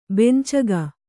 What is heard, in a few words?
"ಬೆಂಚಗ", Kannada